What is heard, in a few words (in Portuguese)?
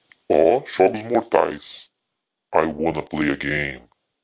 brincando de manipular a voz no celular